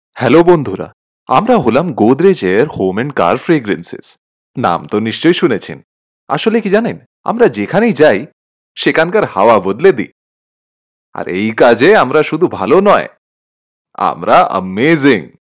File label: BENGALI M